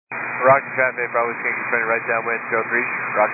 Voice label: 122 725MHz, scanner radio